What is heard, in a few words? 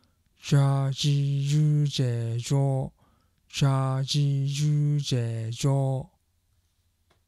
zha zhi zhu zhe zho, pronunciation